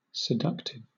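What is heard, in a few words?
"seductive" English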